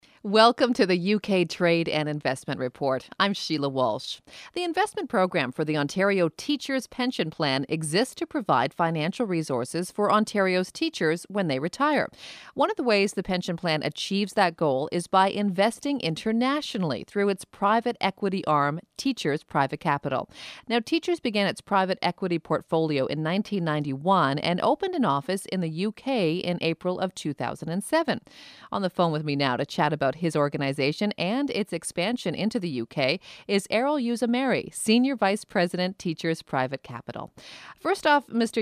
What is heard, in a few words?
Infomercial Host